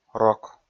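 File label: "рок", Komi, male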